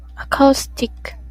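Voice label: "acoustic", JPN, female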